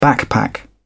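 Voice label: "backpack", British English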